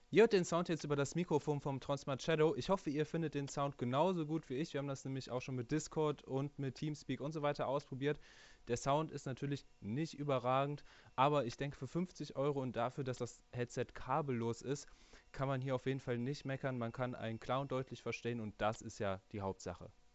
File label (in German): Tronsmart Shadow Headset Aufnahme